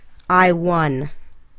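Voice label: "I won", English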